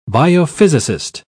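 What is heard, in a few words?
"biophysicist", British English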